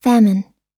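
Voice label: "famine", American English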